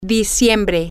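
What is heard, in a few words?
Spanish, female; december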